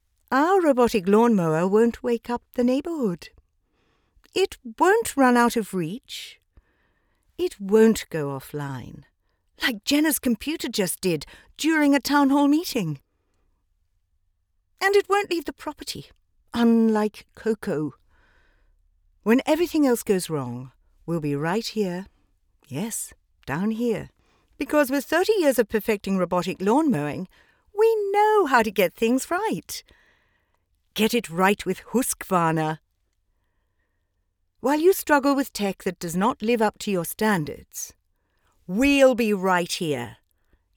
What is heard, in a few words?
Commercial; Husqvarna